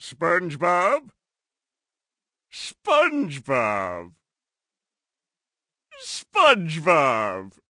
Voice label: plankton awkward; Supersponge